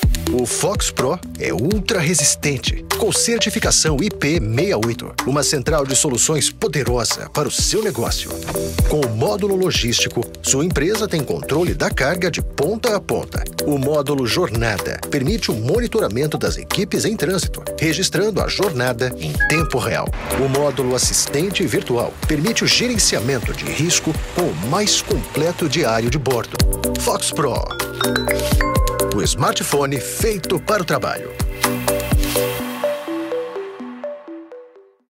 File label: E-Learning, Educational Narration; Brazilian Portuguese; Male